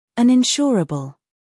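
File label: "humor", British; uninsurable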